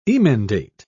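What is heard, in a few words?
"emendate", British English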